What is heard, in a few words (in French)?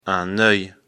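"oeil", French; afrikalan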